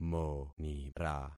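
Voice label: "monira", concatenated syllables; Affixing experiment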